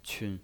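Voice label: "qun", Mandarin Chinese, neutral tone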